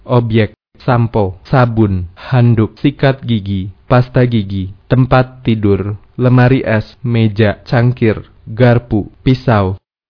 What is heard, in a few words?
indonesian objects